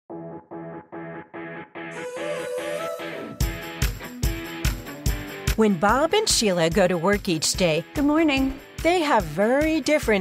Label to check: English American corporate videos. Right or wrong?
Right